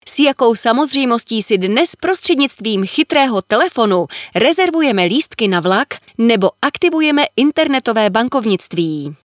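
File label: Czech, female, narration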